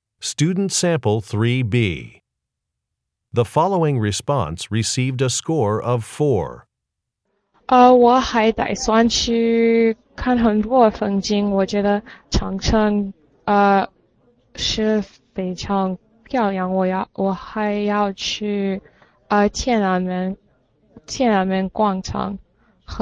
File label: chinese conversation